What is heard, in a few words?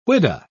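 "whydah", British English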